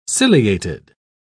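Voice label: "ciliated", British English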